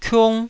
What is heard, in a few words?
"kiung1", taiwanese syllable